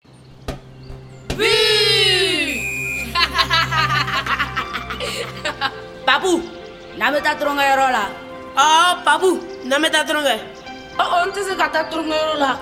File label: malinke